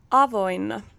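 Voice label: Finnish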